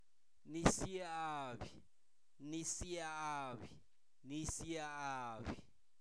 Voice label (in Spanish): atole, Zapoteco, santo domingo albarradas; alimentos; INALI